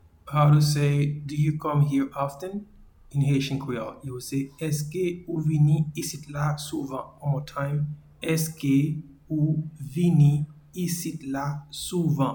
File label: Do you come here often in Haitian Creole, Eske ou vini isit la souvan